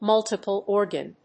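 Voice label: "multiple organ", English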